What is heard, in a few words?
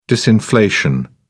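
"disinflation", British English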